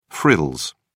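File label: "frills", British English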